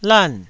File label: "lan4", Taiwanese syllable